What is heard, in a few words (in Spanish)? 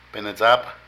Demonio, Zapoteco de Petapa; Religión; Vocabulary